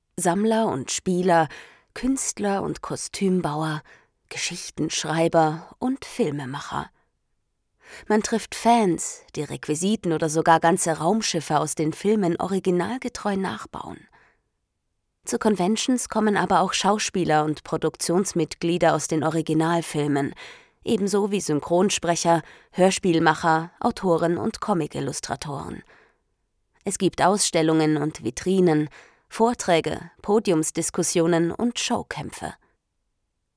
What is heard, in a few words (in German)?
Kommentarstimme; SWFN